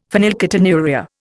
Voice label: "phenylketonuria", Indian; Dictionary